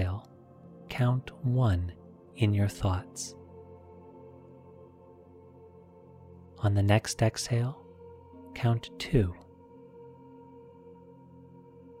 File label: Breath Counting Meditation